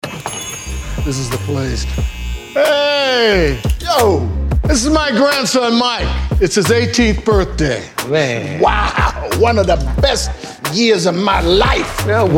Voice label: RADIO MIX; Reingold; BARBERSHOP